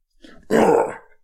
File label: guard male; SyrinScape; Waterdeep